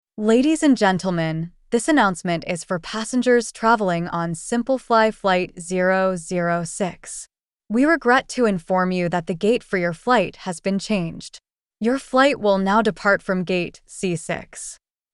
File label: Airport gate Change, voice announcement; Airport CX